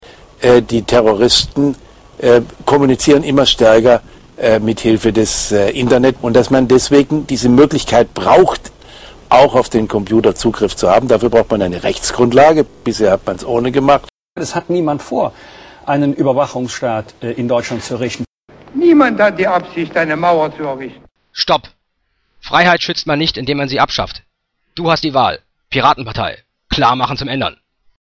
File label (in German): Radiospot; Piraten; Niemand hat die Absicht